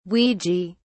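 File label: "ouija", British English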